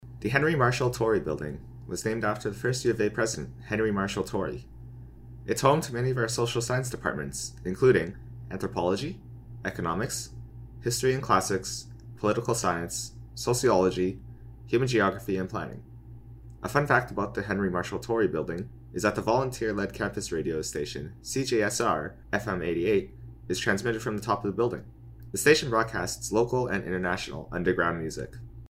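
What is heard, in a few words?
Henry Marshall Tory Building - English; NSO Tour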